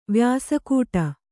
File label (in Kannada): "ವ್ಯಾಸಕೂಟ", kannada